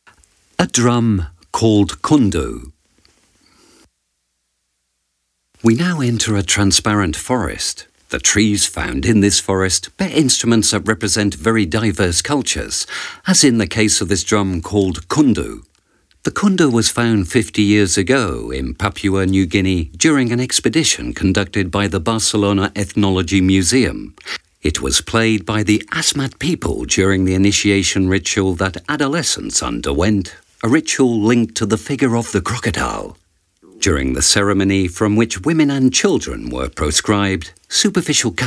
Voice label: audioguia english; delamusica